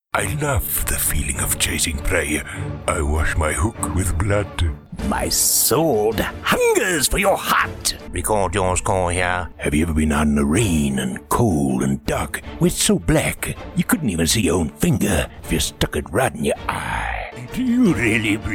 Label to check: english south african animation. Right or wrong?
Right